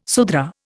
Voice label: "Sudra", Indian